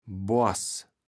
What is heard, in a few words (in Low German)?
"Boars", kaevels platt